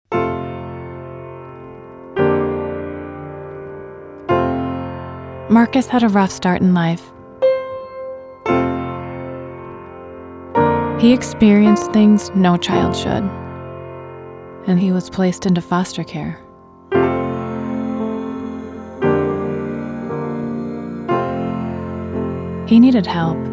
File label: TELEVISION COMMERCIAL; SAN DIEGO CENTER FOR CHILDREN